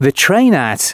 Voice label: announcements male

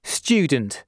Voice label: "Student", announcements male

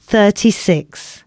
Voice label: announcements, female

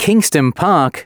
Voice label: announcements male

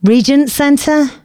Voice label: announcements, female

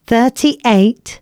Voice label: announcements female

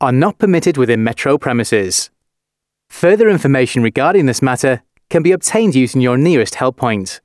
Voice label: announcements, male

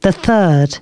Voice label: announcements female

